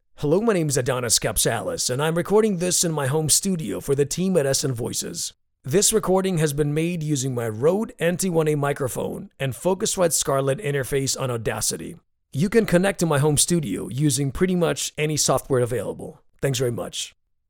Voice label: American / Greek (bilingual), Strong, Engaging, Deep